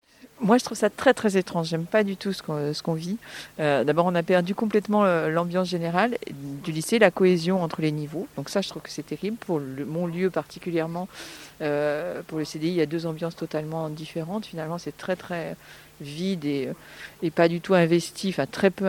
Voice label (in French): temoignages adultes; reouverture lycee; indicatif